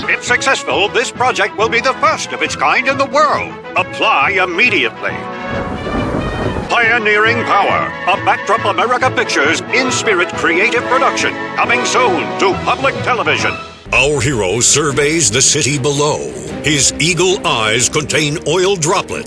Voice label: Fun Humorous Announcer Styles